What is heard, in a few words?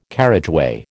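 "carriageway", American English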